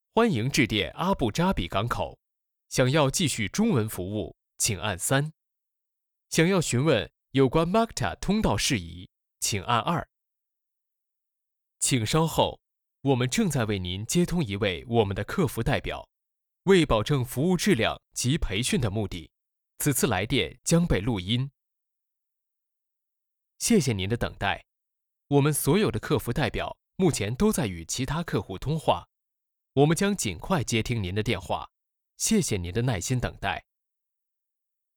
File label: Chinese Mandarin Male